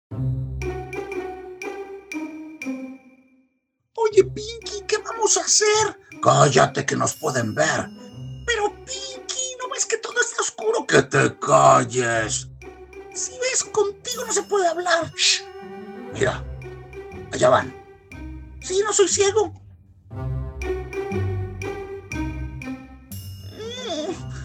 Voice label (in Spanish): ACTUACION DE VOCES